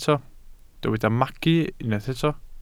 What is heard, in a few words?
"magu", Welsh